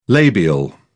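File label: "labial", British English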